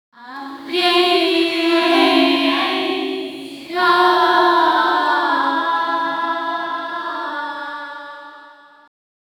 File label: korean girl voices